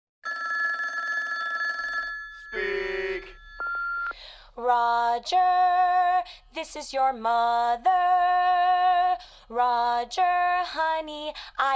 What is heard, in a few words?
Voice Mail